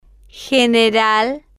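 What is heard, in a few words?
"general" Spanish, female, slow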